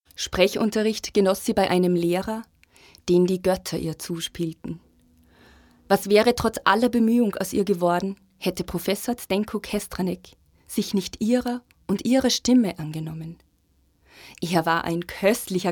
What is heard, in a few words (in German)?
Hörbuch; Pluhar